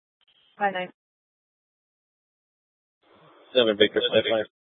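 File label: police department dispatch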